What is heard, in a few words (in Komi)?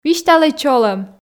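"висьталӧй чолӧм", Komi, female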